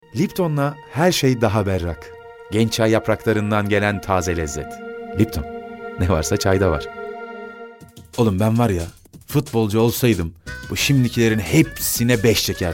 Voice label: turkish m narration, Turkish voice